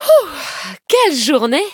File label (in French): victory, fr; cookie run